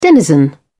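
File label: "denizen", British English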